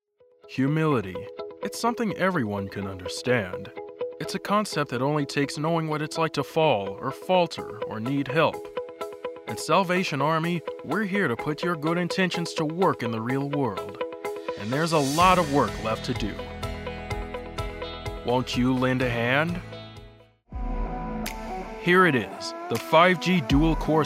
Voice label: Commercial, en-NZ